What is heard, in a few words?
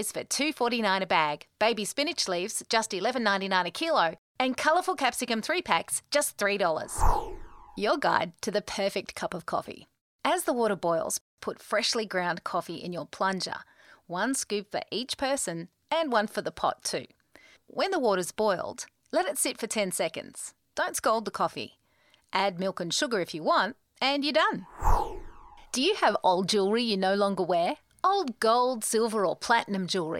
Natural